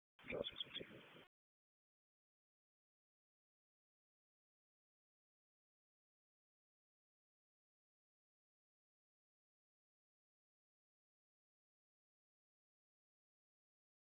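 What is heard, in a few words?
Raleigh Police Southwest District Dispatch, p25; wake p25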